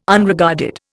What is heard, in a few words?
"Unregarded", Indian